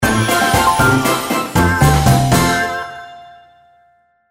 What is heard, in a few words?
free games announcement; Fluffy Favourites Lucky Tap